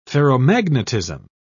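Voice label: "ferromagnetism", English, British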